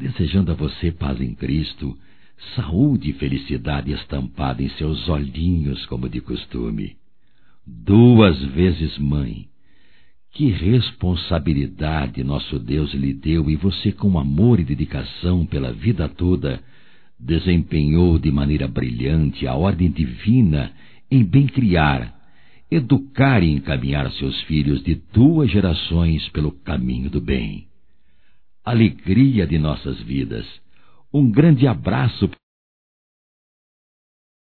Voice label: EVANG ANIVERSÁRIO DE VÓ - M; Telemensagens 2017; Aniversarios

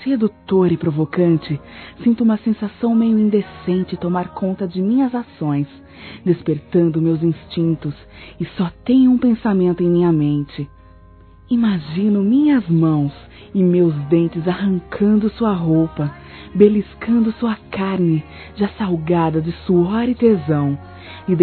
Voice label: ANIV NAMORADO (F), Sensuais; Telemensagens; fem

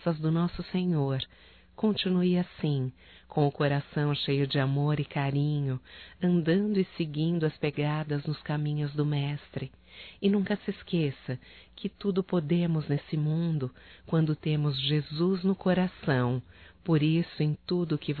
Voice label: EVAN FILHO, Telemensagens, Evangelico, fem; Telemensagens 2017

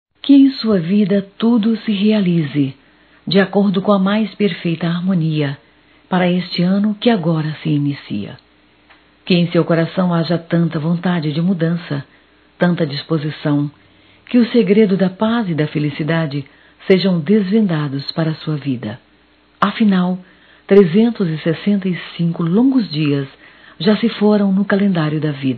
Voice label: Ano novo neutro F; Natal e ano novo; Telemensagens 2017

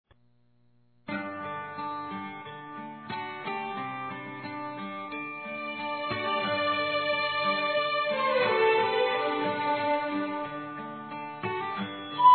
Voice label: Conforto ROMANTICO Feminino; Telemensagens; Telemensagens 2017

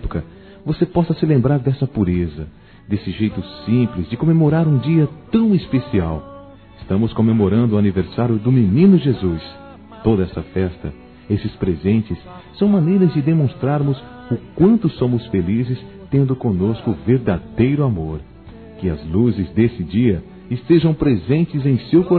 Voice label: Natal PARA Criança Masculino; Telemensagens; Natal e Ano novo

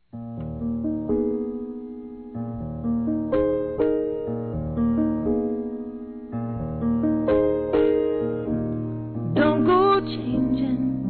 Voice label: Namorado F; Aniversários; ANIVERSARIO romantico; fem

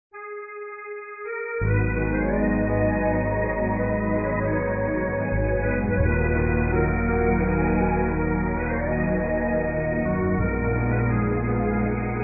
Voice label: Dia das Mães Obrigado Senhor Masculino; Telemensagens 2017

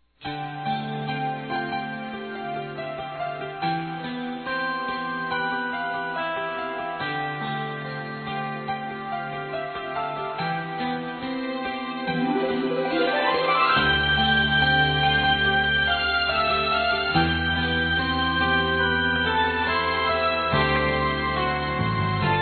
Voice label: Aniversário Avô Infantil Masculino; Telemensagens 2017; Aniversários

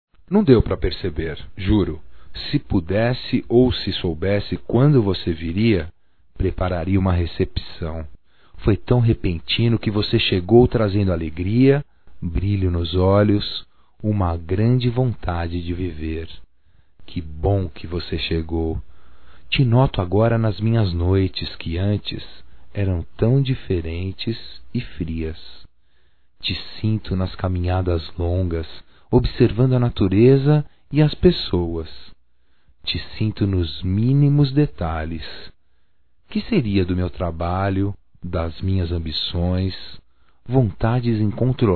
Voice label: OBIGADO POR VOCE EXISTIR M, Só Voz, masc; Telemensagens; Telemensagens 2017

